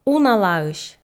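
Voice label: "уналаысь", Komi, female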